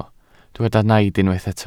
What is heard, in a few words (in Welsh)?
"naid" Welsh